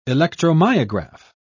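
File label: "electromyograph", British English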